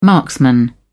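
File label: "marksman", British English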